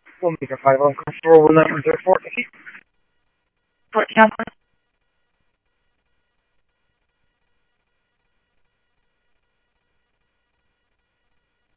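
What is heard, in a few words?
Mesa Police Department simulcast, scanner audio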